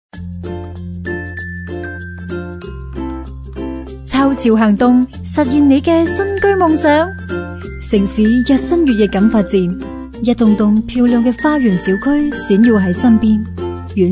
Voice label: 女声 宣传 成熟 购房服务宣传 粤语

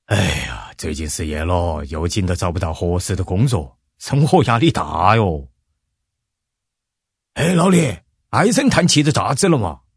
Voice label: 男声 四川 中年

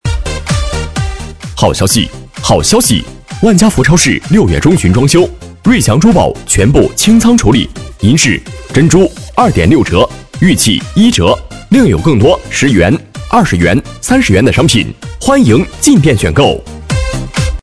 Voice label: 男声万家福超市装修

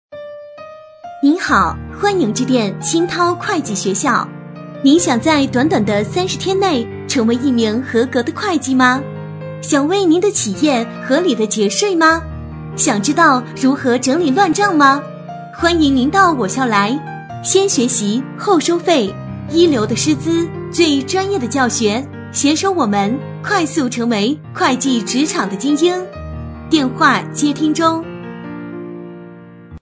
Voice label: 女声彩铃; 会计学校

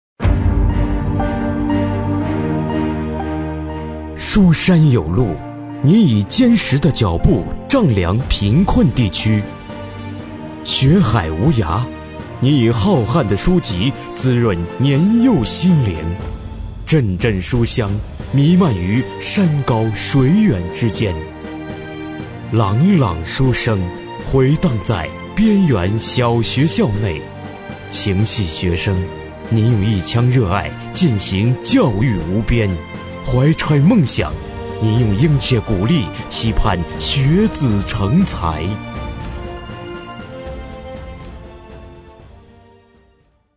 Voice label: 男声颁奖词 教师

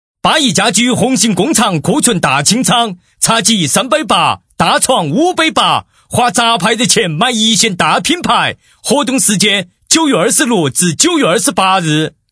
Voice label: 男声 八一 大气促销 四川

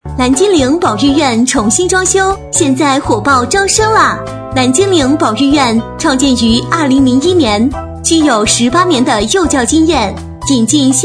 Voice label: 女声 幼儿园; 蓝精灵

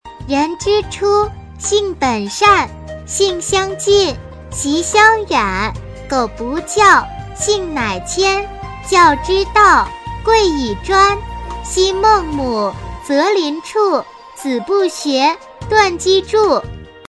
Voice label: 女声 童声三字经

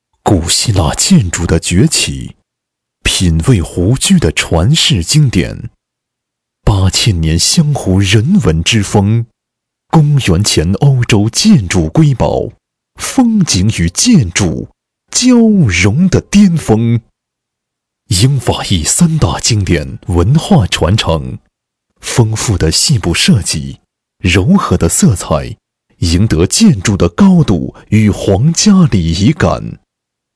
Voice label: 男声 地产; 湘湖壹号

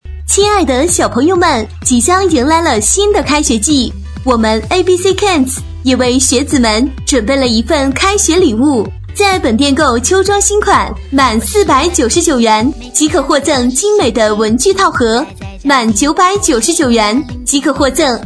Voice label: 女声; ABC童装

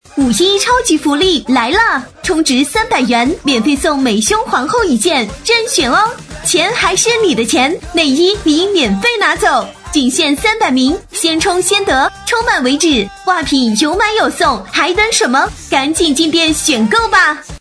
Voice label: 女声内衣五一活动

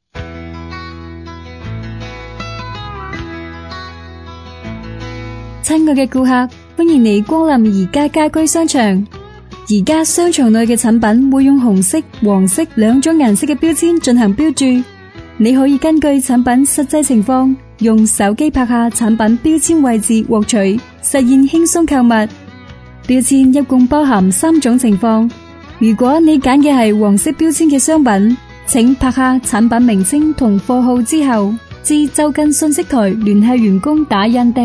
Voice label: 女声广播温婉 家居广播粤语; 宜家

